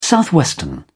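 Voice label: "southwestern", English, British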